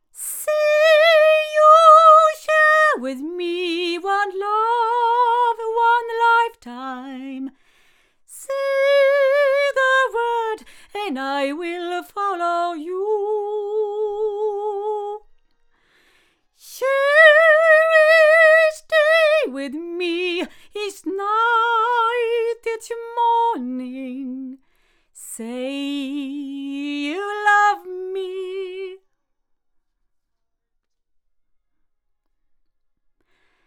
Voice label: female; BLUE Babybottle